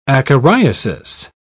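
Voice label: "acariasis", English, British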